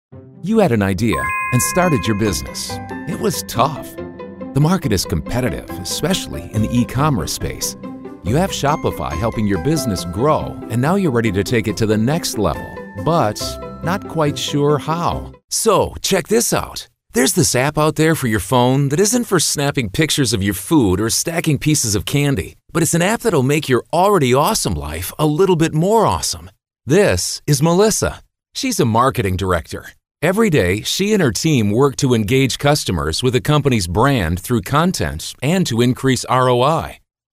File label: Animation Male, Commercial Voiceover, Character